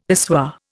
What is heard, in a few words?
"pissoir", Indian; Dictionary